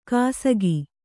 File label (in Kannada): "ಕಾಸಗಿ", kannada